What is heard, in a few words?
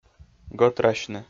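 "гӧтрасьны", Komi, male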